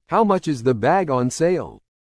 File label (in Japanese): ノーマル